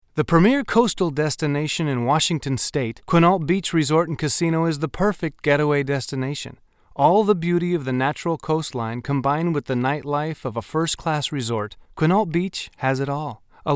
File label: voiceovers; Quinault Resort & Casino (male)